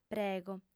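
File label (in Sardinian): "prego", female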